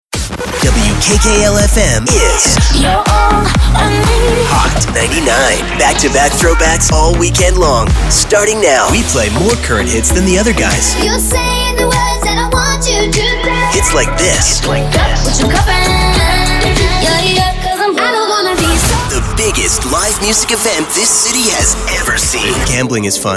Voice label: radio men hot ac; radio imaging